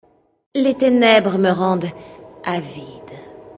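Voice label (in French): Female Flirt, voix françaises; Elfe du Vide; Tenebres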